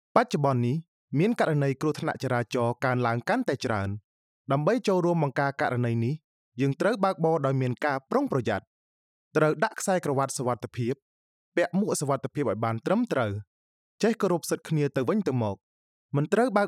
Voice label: IVR; Cambodia